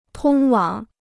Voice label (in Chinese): "通往", Chinese